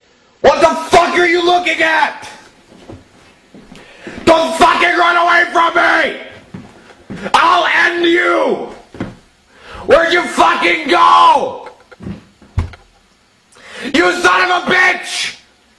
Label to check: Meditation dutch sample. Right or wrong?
Wrong